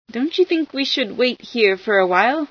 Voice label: wait here, female